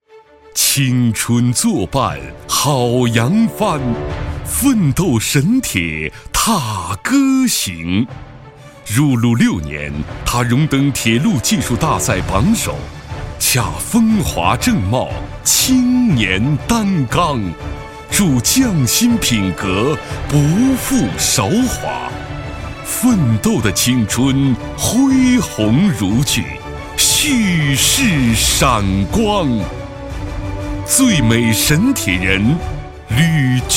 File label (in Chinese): 男 颁奖词